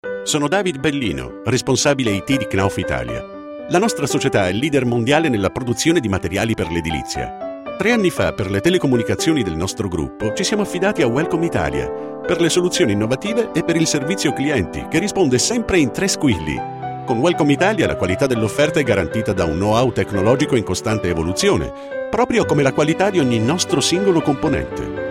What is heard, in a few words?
Radio Spot; Radio24; Knauf